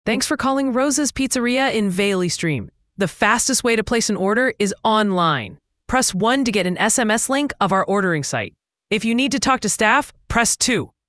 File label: prompt, ivr; rosas pizzeria